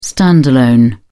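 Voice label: "stand-alone", British English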